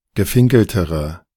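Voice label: "gefinkeltere", German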